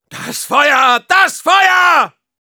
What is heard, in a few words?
auto on fire, de; Soldier